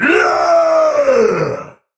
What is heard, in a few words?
Attack Effort Heavy; Tau; Dax; Battle Barks